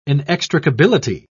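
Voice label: "inextricability", British English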